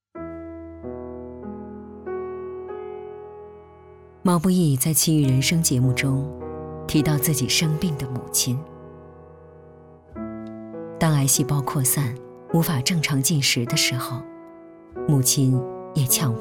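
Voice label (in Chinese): 国语 女声 宣传片 大气正式 大气浑厚磁性 沉稳 娓娓道来 亲切甜美; 专题片-【遗憾深沉】介绍人物怀念家人 人物专题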